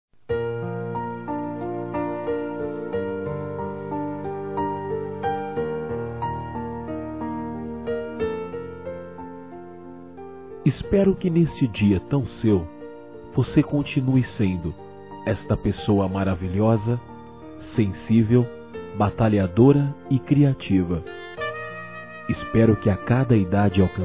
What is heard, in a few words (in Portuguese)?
Aniversário Neutro Masculino; Aniversários; Aniversários diversos